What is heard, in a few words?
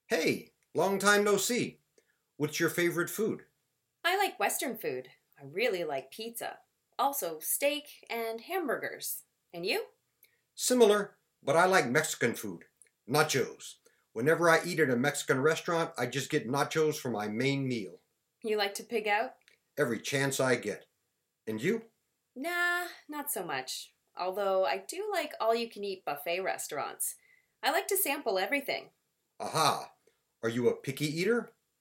Conversation